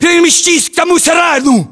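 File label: order cover shed, battlechatter, Russian; localized english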